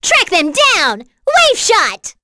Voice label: Vox Skill, en; kingsraid; voices heroes